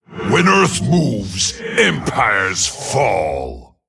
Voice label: Male Tolvir Play

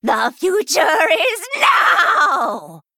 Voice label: Female Gnoll Attack

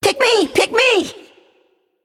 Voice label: Male Naga Play

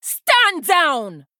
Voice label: Female Troll Attack

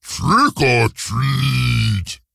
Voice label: Ogre Emote Happy Halloween; Boulderfist

